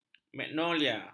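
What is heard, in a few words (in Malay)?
"magnolia", Malay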